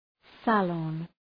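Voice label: "salon", English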